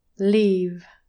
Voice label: "leave", english pronunciation